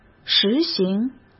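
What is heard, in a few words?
"实行", Mandarin Chinese; chinese-flashcards; hsk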